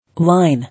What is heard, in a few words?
"Line", English